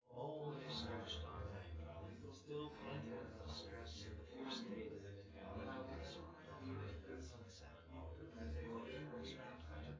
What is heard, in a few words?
male synth conv